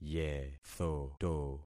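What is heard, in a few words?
"yethoto", concatenated syllables; Affixing experiment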